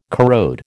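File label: "corrode", American English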